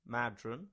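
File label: "Madron", English; place-names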